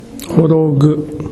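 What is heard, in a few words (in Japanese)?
"horogu", 南山形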